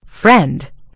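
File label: "friend", British English; gcompris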